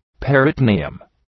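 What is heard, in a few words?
"peritoneum", English; {,perıtəʋ’ni:əm}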